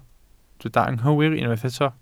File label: "anghywir", Welsh